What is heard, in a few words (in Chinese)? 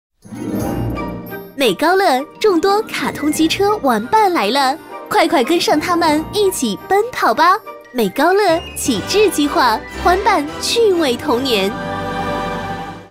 女,广告,欢快,儿童玩具; 美高乐